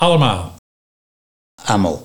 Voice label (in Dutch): "Ammel", Nieuw lekkerlands dialect; allemaal